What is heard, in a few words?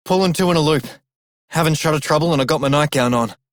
Male Radio, en; Dialog; Warframe; Solaris Venus